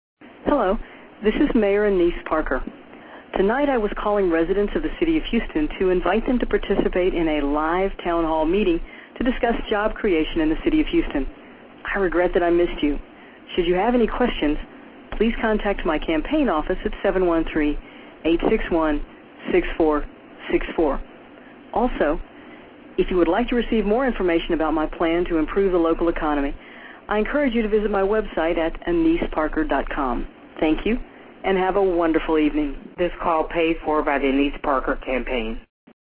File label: voice message